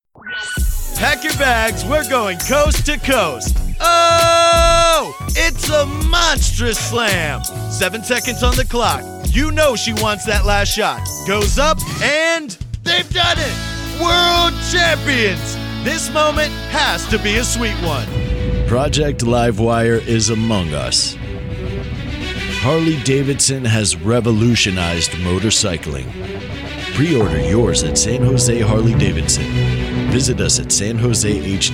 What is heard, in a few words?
Game Announcer Documentary; Harley Davidson